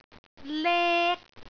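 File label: "lek1", Cantonese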